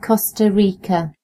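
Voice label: "costa rica", British English; gcompris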